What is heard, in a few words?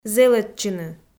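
"зэлӧдчыны", Komi, female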